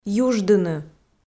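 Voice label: "юждыны", Komi, female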